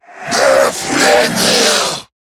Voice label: Player Spotted, en; Zariman Wraith

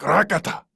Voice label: Banter; Warframe; Clem; Dialog Barks Battle

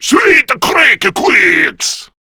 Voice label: Reload, Male; Warframe; Grineer Male B; Battle Barks

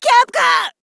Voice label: Surprised Female; en; Modular Corpus; Battle Barks

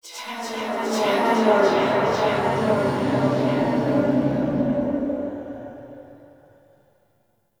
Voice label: Tenno Whisper; Warframe; Lotus Eaters; Ambience

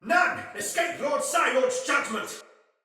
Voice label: Sight Lost Shout, Soldier Male; Sinecure Soldier Male A

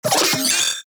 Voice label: Vocal Alerted; Warframe; Corpus; Arisoctrats; Mini Moa

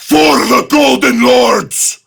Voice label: Attack Prime Grineer Male; Tau; Battle Barks

